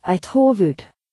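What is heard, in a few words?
head female, Norwegian Nynorsk; body parts; people; stamps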